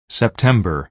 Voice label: "september", US

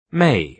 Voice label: may, UK

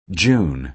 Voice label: june, US

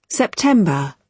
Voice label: september, UK

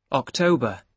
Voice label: october, UK

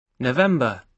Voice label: november, UK

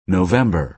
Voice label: "november", US

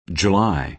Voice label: "july", US

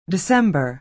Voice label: december, US

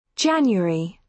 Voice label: january, british

